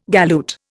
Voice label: "galoot", Indian

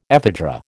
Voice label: "ephedra", American

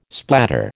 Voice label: "splatter", American

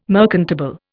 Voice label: "merchantable", Indian

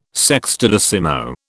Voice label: "sextodecimo", British

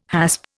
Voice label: "hasp", Indian

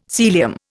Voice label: "Sealyham", Indian

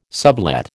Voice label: "sublet", American

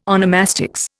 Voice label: "onomastics", Indian

